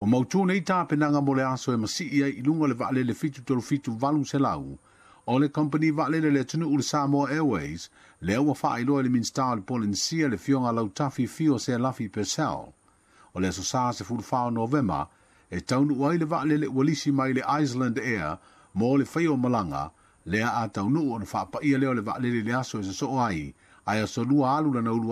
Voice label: samoan; SBS Samoan; Toe amata e le malo Samoa ona faafoe se kamupani vaalele.

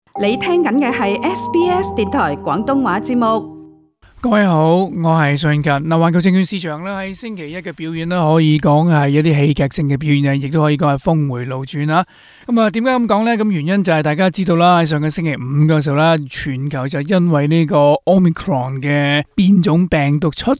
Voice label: cantonese; 周一市場跌勢放緩、但波幅依然; SBS Chinese; global finance

